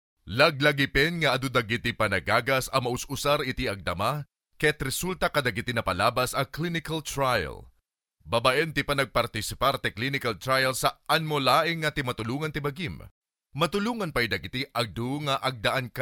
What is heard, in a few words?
Ilocano